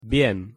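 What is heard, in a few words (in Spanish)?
"bien", Spanish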